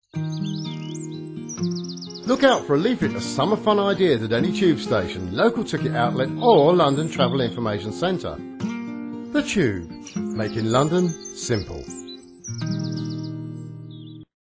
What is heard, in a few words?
calm